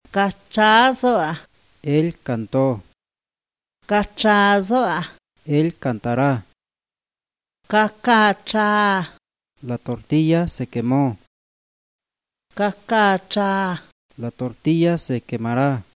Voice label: triqui; mexico